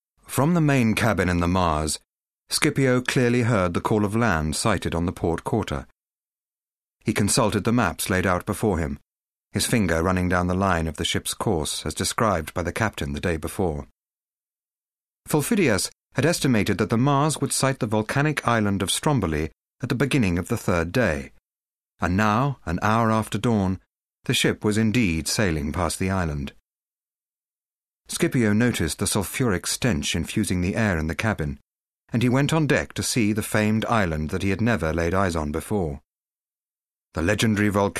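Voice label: drama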